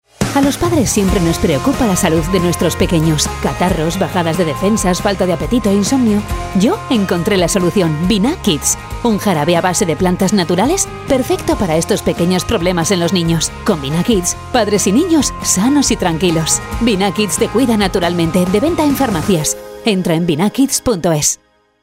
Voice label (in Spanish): Femenino